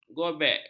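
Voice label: "gobek", Malay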